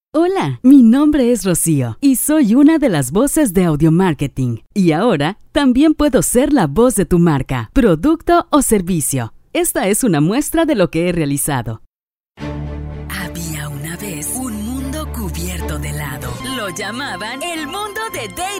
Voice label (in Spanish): Mexico; Locutores; Audiomarketing